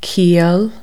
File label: "sense", Irish